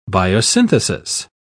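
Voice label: "biosynthesis", British English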